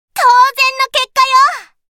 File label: Voice victory